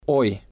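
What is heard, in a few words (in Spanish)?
"hoy", spanish, male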